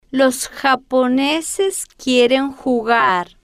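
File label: los Japoneses Quieren Jugar, slow, Spanish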